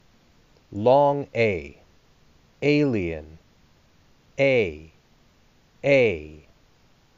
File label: Long a vowel sound